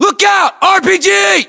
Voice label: threat rpg, US; localized english; battlechatter

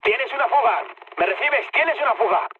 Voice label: tank damaged, mood med, speech; War Thunder

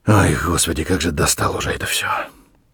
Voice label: mutter, voice; Escape from Tarkov

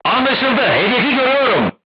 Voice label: voice message target lock, turkish

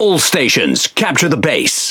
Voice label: Pirate Speech Quick Commands Enemy Base; World Of Warships

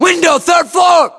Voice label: location third floor window, US; localized english; battlechatter

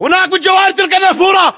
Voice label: landmark near fountain, battle chatter, english

